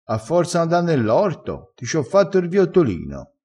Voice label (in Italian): "viottolino", dialettale